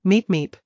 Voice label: VOICE MEEP MEEP; kst4contest